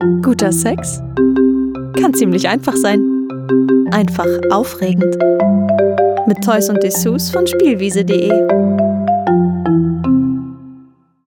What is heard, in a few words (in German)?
Werbung; Spielwiese